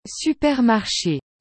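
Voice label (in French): "supermarche", French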